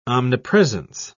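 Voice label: "omnipresence", English, British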